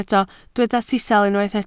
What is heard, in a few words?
"sisial", Welsh